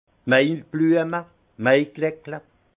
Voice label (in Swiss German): "mai blueme", alsace; vin; muguet